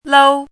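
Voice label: "lou", pinyin, first tone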